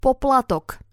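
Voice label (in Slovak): "poplatok", Slovak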